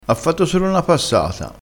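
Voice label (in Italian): "passata", dialettale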